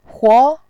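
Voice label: "huo", Mandarin, second tone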